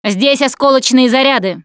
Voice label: explosive ammo, russian, Survivor Voice Producer

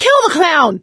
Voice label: see clowns, survivor voice; Left 4 Dead 2; producer

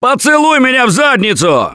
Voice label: reaction negative, russian; Gambler

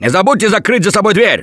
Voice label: Close The Door, russian; Gambler

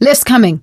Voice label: bridge raise hit it, survivor voice; Left 4 Dead 2

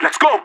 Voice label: radio lets go; player vo leet

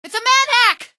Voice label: its a manhack, female; Garry's Mod; hl2

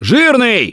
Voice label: warn boomer, russian; Voice; Gambler

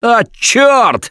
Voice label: reaction negative, russian; Gambler

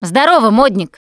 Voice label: name gambler, russian, Survivor Voice Producer

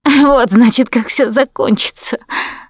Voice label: going to die, russian; Producer

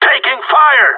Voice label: radio taking fire; player vo gsg9

